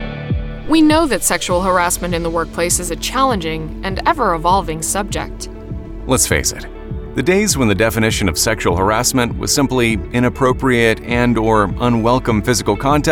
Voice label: eLearning Duet